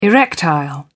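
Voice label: "erectile", British English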